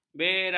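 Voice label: "bera", Malay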